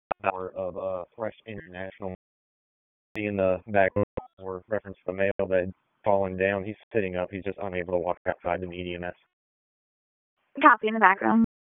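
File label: Police Northwest District Dispatch, p25; Raleigh; wake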